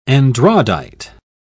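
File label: "andradite", English, British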